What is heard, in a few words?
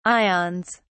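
"ions", English, British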